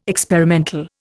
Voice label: "experimental", Indian